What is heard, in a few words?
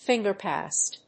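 "finger pads", English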